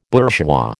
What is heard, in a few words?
"bourgeois", American